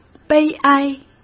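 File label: "悲哀", Mandarin Chinese; hsk; chinese-flashcards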